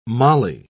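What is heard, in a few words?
"molly", British English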